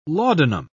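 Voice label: "laudanum", British English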